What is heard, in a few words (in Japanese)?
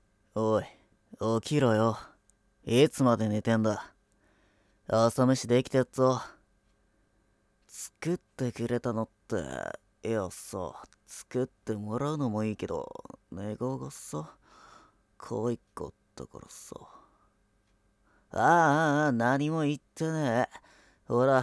イケボ でれ L; 左耳Ver